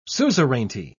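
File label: "suzerainty", British English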